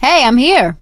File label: scenario join, survivor voice; source engine; left 4 dead 2; producer